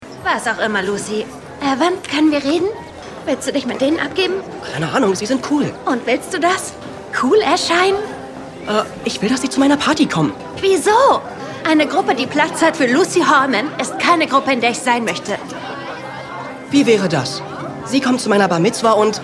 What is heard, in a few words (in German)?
Synchron; Patrice